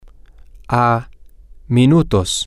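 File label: 8 minutes away, Spanish, male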